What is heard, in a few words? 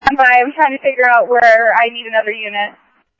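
Simulcast Chandler Patrol A, scanner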